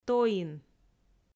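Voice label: "тоин", Komi, female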